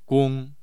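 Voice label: "gong1", Mandarin Chinese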